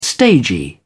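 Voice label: "stagy", British English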